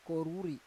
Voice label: "koruri", Roon